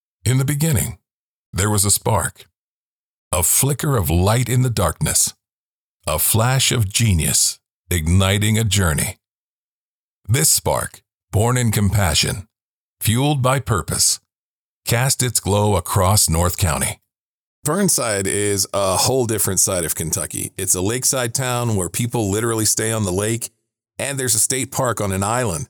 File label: narration